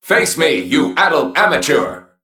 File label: Male Mech Play